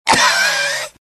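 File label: death, dialogue generic Italian; mohaa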